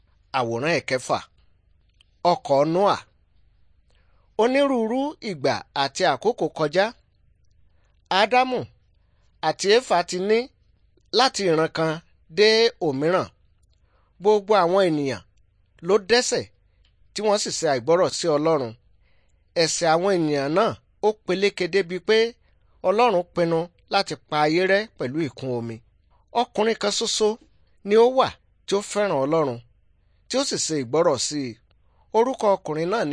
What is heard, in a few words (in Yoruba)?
good news yoruba